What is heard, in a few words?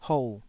"hou3", taiwanese